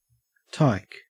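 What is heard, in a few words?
"tyke", Australian English; pronunciations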